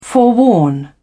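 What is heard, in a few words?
"forewarn", British English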